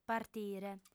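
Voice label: "partire", female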